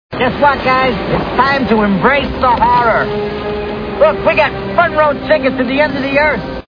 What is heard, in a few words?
horror; armageddon